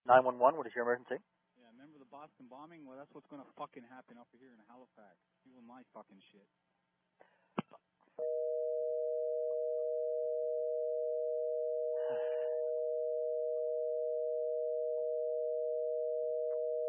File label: 911 tape